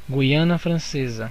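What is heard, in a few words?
"french guiana", Brazilian Portuguese; gcompris; geography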